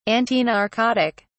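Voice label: "antinarcotic", British English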